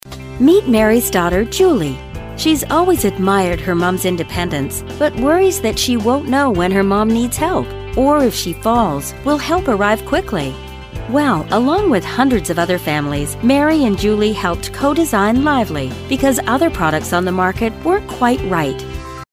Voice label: Lively explainer